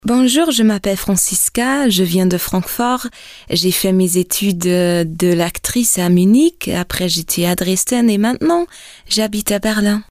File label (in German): französische Vorstellung